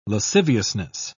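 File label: "lasciviousness", British English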